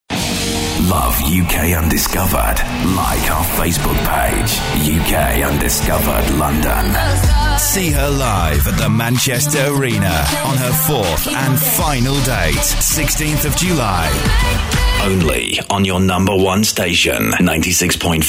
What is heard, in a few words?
imaging